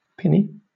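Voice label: "pinny", British English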